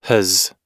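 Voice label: "has", American English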